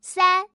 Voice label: single, female

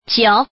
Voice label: Woman

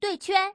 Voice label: pair, female

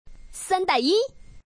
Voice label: 3 and 1, female

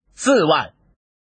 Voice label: man

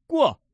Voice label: pass, male

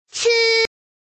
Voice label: woman chi

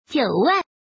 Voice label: woman

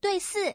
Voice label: pair, female

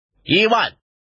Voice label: man

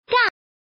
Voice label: woman gang

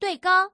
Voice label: pair, female

